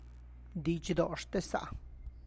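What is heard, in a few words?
wedding offering, Tlacochahuaya Zapotec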